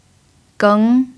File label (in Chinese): "geng2", f; chinese